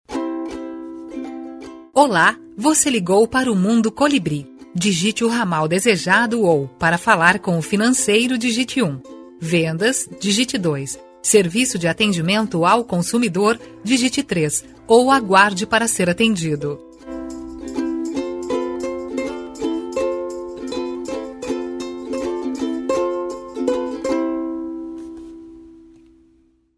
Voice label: espera telefonica ura; loja colibri

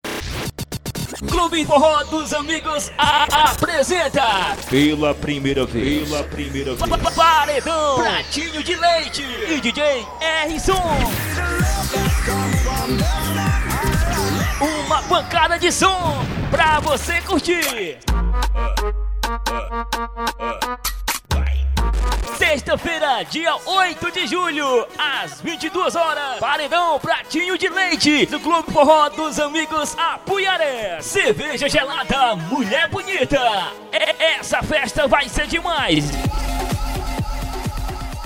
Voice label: vinheta; club forro dos amigos apresenta paredao pratinho de leite sexta feira

